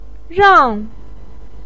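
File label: "rang", Chinese pinyin, fourth tone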